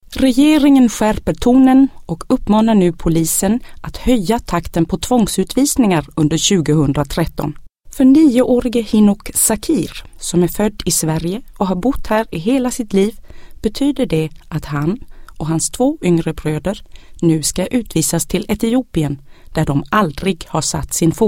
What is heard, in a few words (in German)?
Schweden W